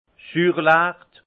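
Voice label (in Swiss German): "suurlecht", alsace Haut-Rhin; vin; mauvais amer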